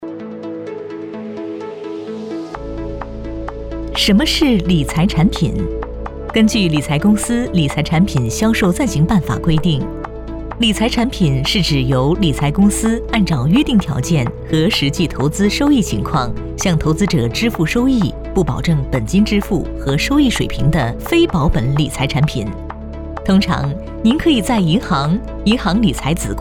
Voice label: 女 课件讲解 什么是理财产品

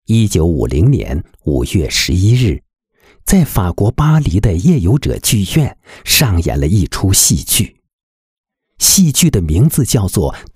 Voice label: 男

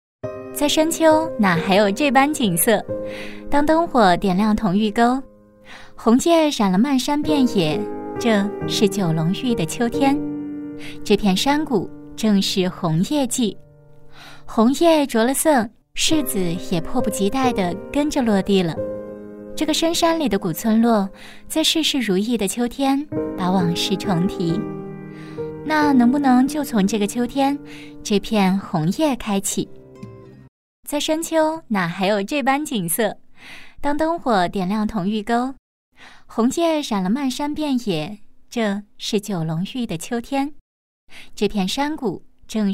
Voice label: 女 旁白; 在深秋哪还有这般景色

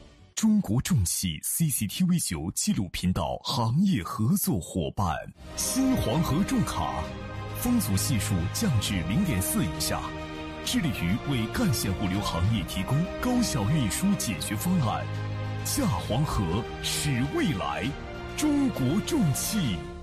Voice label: 男 广告; 央视广告; 中国重汽

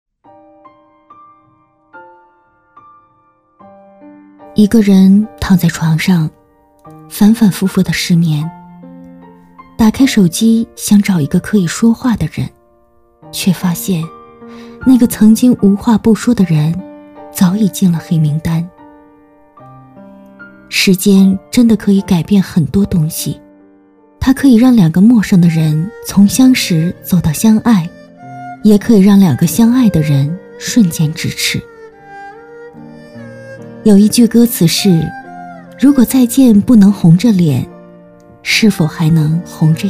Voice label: 女 旁白 情感独白

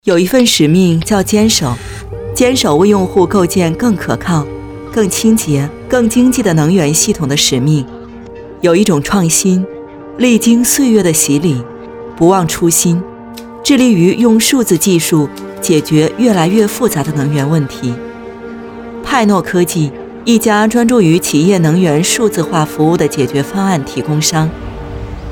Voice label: 女 专题 走心宣传片

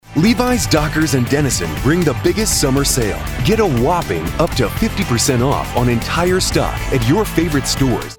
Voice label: 促销广告激情

